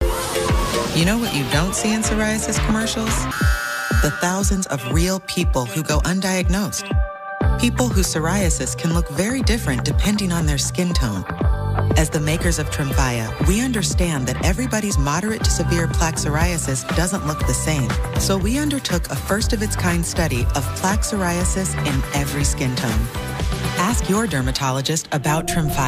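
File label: Pharmaceutical Demo, voice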